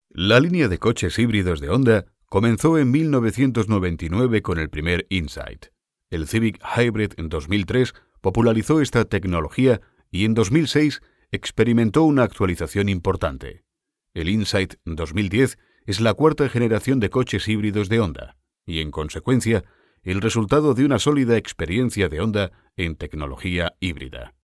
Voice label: euro spanish vo elearning; honda